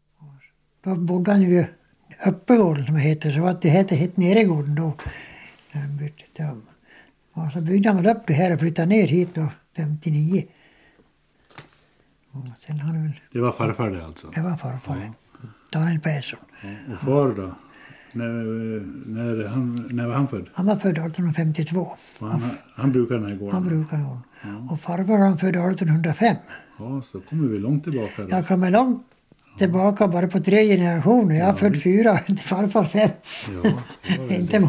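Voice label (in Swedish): Grangärde Dalarna, dialekter; Folkminnen; Svenska sagor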